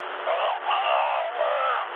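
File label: German damaged pilot, speech; War Thunder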